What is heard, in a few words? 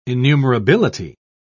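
"innumerability", British English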